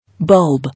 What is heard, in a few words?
"Bulb", English